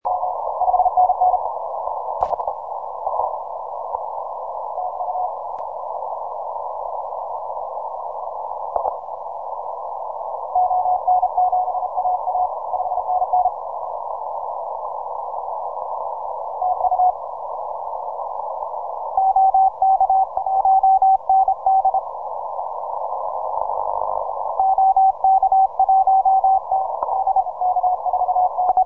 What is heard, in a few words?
40M CW